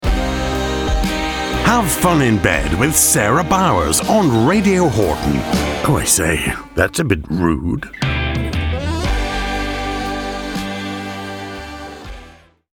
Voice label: english british radio imaging